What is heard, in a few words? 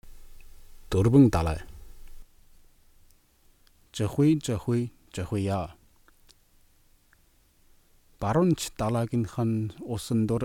Pronunciation Guide; Dorven Dalai